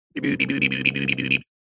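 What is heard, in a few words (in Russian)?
zvuk neponyatnoi tarabarshiny, sound of incomprehensible gibberish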